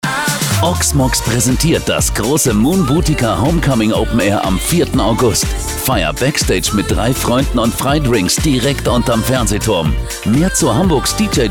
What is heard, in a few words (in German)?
Fremdtake Dynamisch